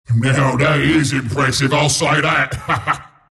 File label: announcer kill teamwipe; killing spree; dota; announcer dlc bristleback